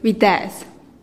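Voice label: "biteez", Teotitlán del Valle Zapotec; nest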